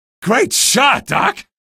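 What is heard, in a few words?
citizen great shot, male; vo; npc; source engine; episodic; episode 1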